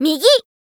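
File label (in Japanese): "右", Japanese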